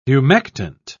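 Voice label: "humectant", English, British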